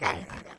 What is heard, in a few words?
Young